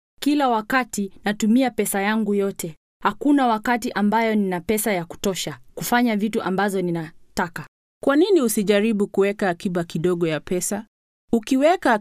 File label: saving money swahili